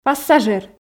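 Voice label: "пассажир", Komi, female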